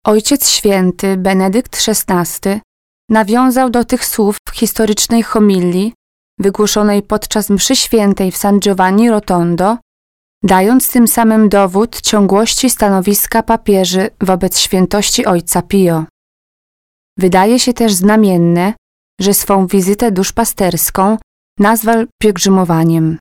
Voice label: documentary